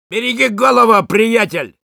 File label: Sniper taunts, ru